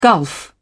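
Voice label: "gulf", British English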